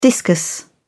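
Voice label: "discus", British English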